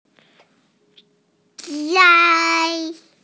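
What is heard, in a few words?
"sai1", Mandarin Chinese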